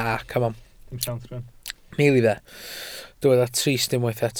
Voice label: "trist", Welsh

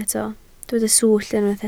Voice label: "swllt", Welsh

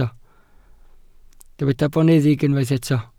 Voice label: "boneddig", welsh

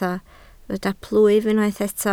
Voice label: "plwyf", Welsh

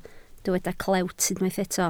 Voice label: "clewt", Welsh

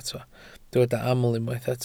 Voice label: "aml", Welsh

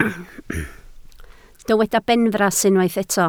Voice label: "benfras", Welsh

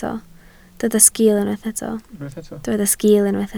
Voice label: "sgiil", Welsh

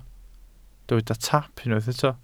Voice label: "taap", Welsh

